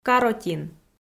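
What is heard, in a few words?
"каротин" Komi, female; kpv audio dict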